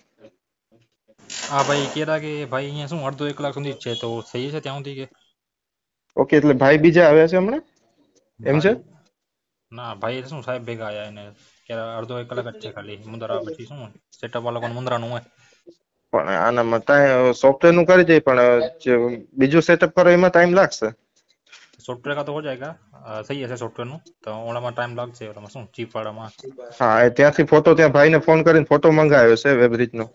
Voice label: Call recording; Qs Office